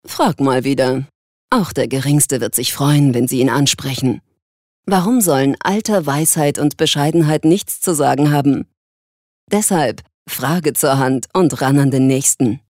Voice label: Werbung Cool, voices

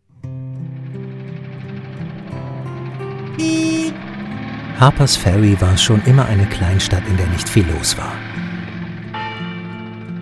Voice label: Kommentar Sanft

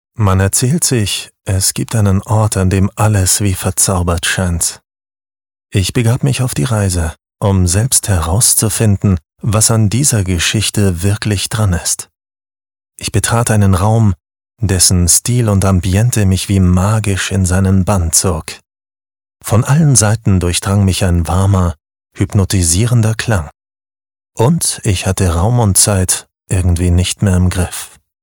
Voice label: Schauspiel Sanft

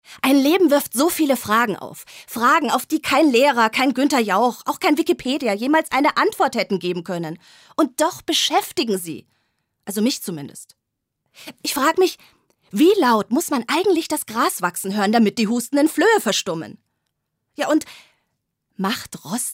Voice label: Schauspiel, Dynamisch

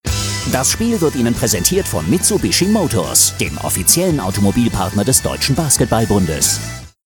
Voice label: Lebendig; Fremdtake

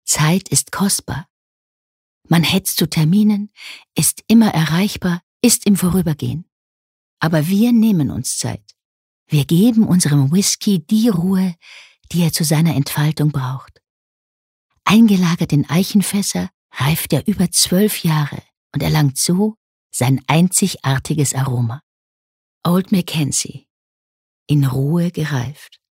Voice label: Sanft; Mac Kanzie

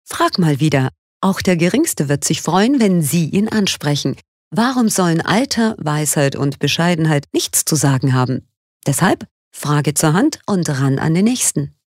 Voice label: Werbung Freundlich

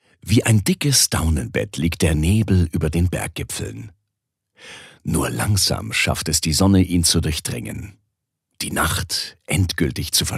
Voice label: Schauspiel Variabel

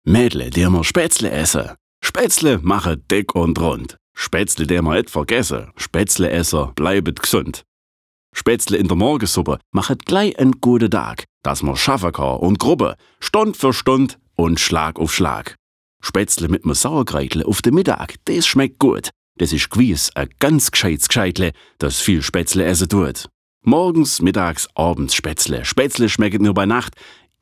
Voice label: Dialekt Schwaebisch

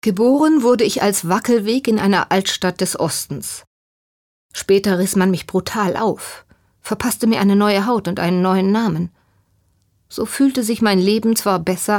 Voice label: Schauspiel Neutral

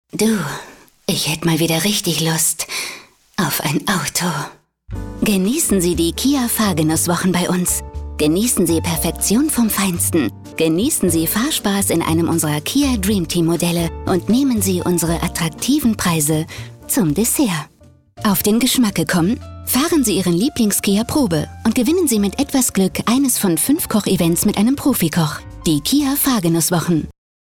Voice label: Sanft

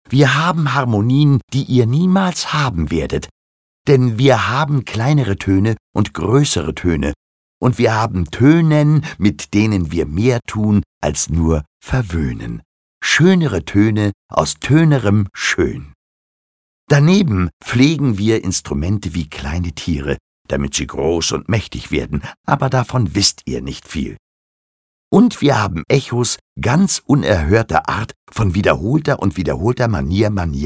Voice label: Schauspiel Frech

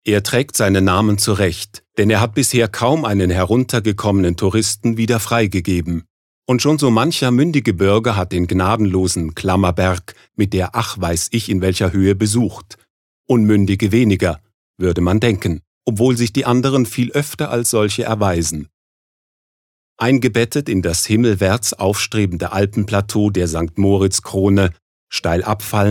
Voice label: Kommentar Neutral

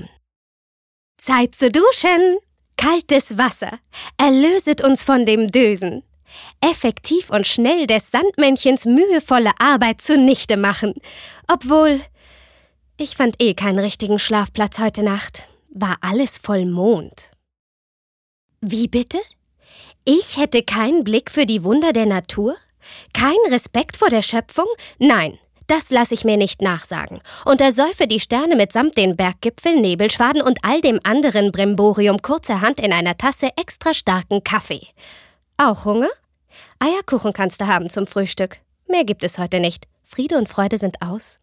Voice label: Schauspiel Variabel

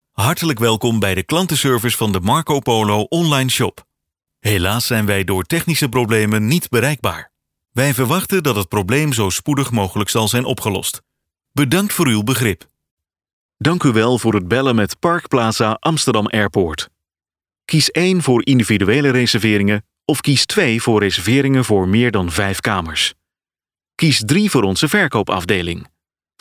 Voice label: K NL Fremdtake Freundlich

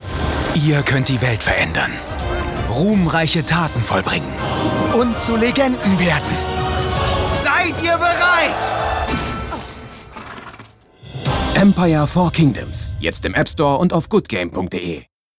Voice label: Werbung Lebendig

